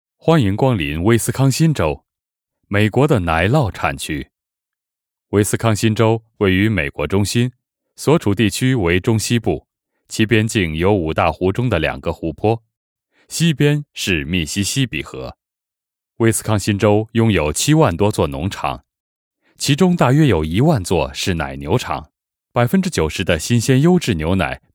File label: cn informative, chinese-mandarin